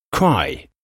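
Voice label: "cry", English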